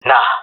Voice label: negative, jungle male; vo; agents; Source Model Packs; Counter Strike Model Pack; CS2